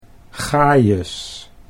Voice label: "gajes", Dutch